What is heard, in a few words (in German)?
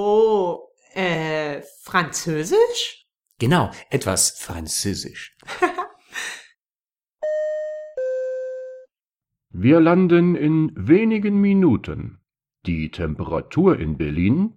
Dialog Sprichst du Deutsch